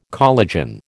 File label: "collagen", American